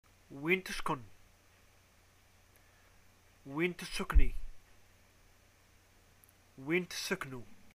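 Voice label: Algerian where do you live, arabic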